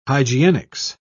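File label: "hygienics", British English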